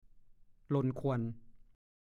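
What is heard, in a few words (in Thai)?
"หล่นข่วน" ภาษาโคราช; ถึงแก่กรรมด้วยโรคชรา ผลไม้สุกงอมหล่นจากขั้ว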